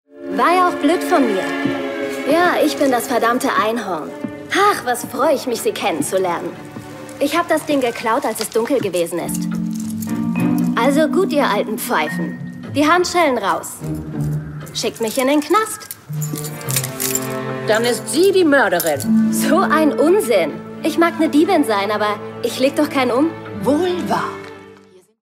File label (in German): synchron; doctor who